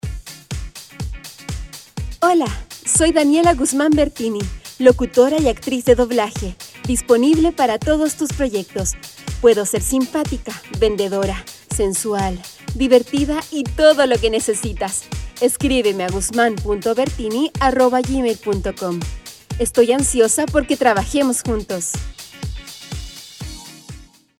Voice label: Upbeat